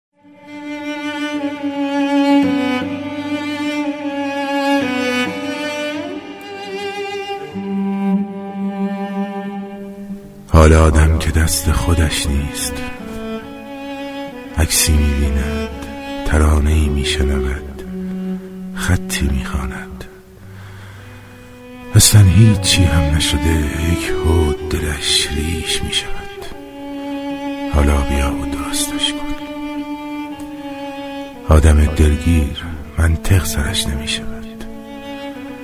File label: monolog